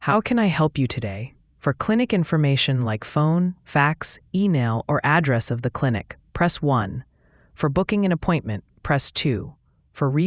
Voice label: question english